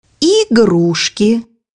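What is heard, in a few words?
"toys", Russian; bukvar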